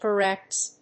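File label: "corrects", English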